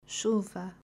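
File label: "Chuva", Portuguese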